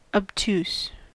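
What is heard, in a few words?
"obtuse", American English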